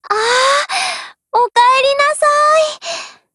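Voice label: HELLO, Japanese; GF Alarm; PPsh41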